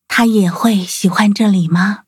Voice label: touch, zh hant; cookie run